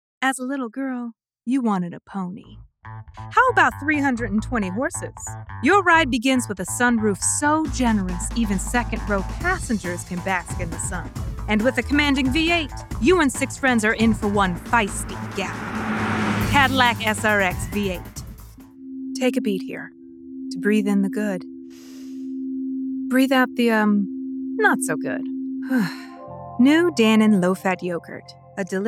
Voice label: Voice Actor; BigMouth Voices